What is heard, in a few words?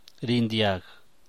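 "rliuu", San Mateo Macuilxochitl Zapotec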